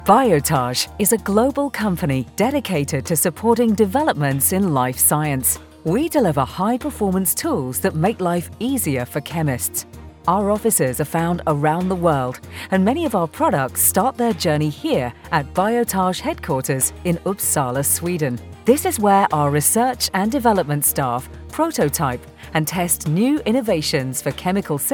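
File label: English international female info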